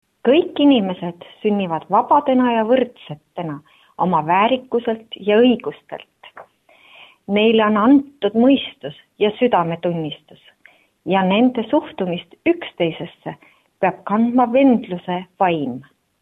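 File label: Estonian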